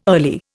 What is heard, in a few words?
"early", Indian